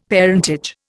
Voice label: "parentage", Indian; Dictionary